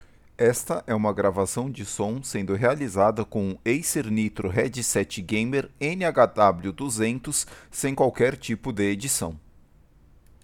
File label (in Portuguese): Headset Gamer gravacao; Acer Nitro NHW200